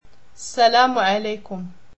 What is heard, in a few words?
"salam", Berber